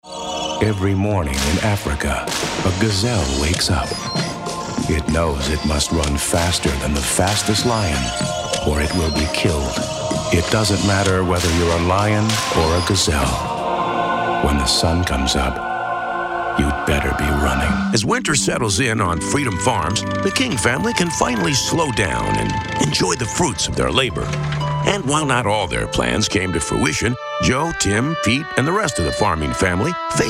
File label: narration